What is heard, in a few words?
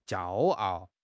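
"jiao1 ao4", Chinese; HSK4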